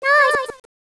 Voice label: yes, voice commands; svencoop; Miku